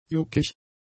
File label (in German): "juckig", german; adjectives